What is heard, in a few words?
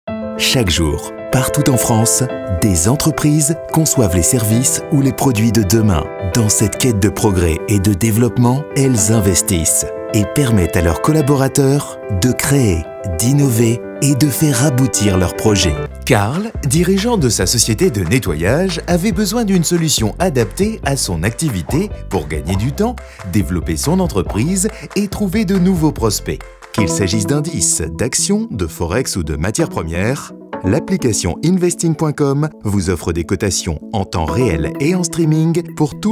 VIDEO EXPLAINER; FIVERR